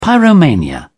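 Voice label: "pyromania", British English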